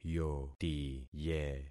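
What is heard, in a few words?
"yotiye", concatenated syllables; Affixing experiment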